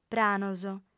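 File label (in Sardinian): "pranos", Sardinian, female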